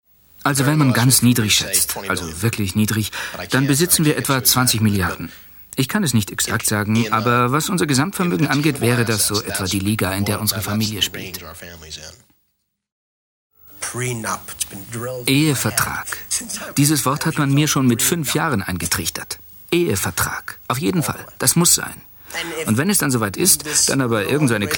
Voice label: voice over; König Ludwig II und Sophie